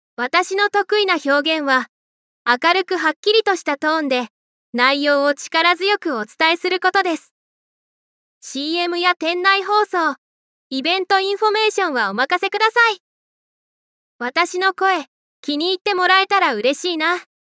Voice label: women positive; ai-voice